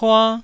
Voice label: "pua1", taiwanese